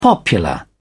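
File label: "popular", British English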